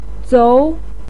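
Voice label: "zou", Mandarin Chinese syllable, second tone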